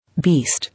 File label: "Beast", English